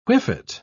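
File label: "whiffet", British English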